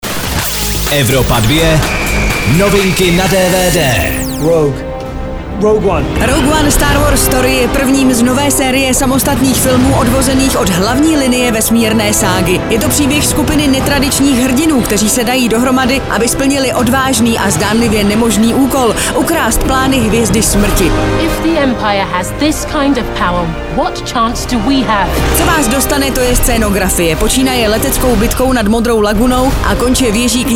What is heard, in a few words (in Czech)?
dvd news; evropa2; rogue one star wars story